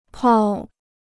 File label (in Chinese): "泡", Chinese